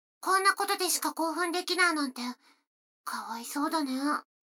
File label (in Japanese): 女の子台詞, ASMR